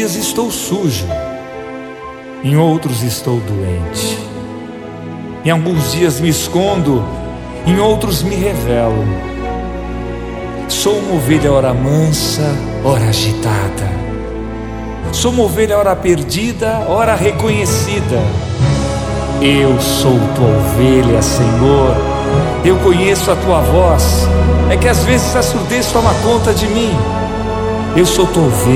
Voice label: o bom pastor oracao, Rap Gospel

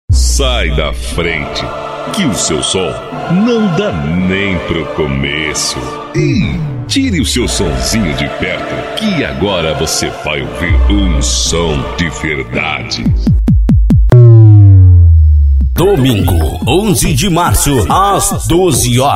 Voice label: locutor chamada encontro de paredões

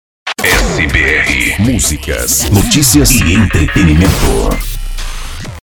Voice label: vinheta radio masculina vocal; radio sbr; musicas noticias e entretenimento; vocal rapido impacto

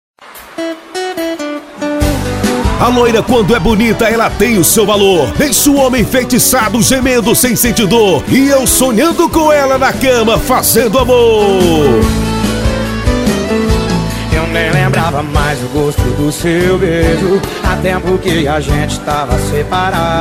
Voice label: locutor

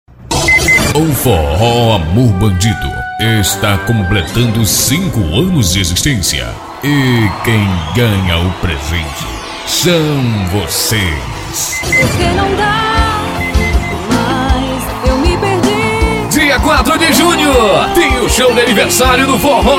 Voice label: comercial spot vinheta propaganda

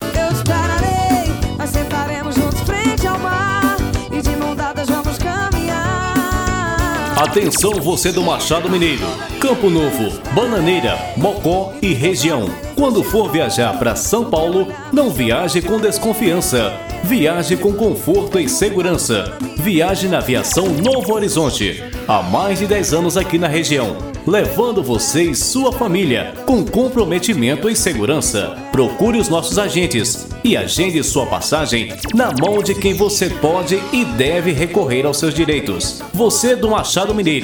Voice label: spot vendas de passagens; novo orizonte

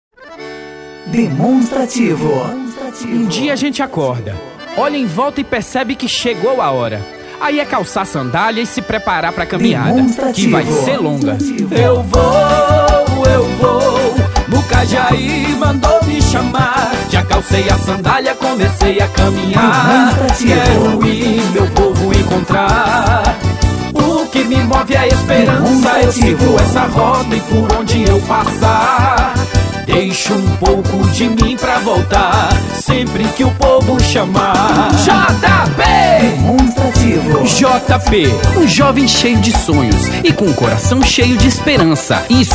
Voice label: jingle vereador prefeito eleicao campanha politica; sandalha

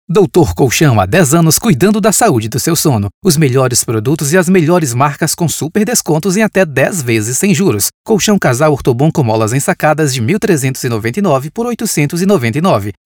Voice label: voz locutor; Dr Colchão; SBT

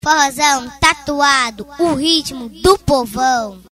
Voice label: Voz Filha 8 anos

